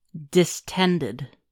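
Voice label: "distended", American English; pronunciations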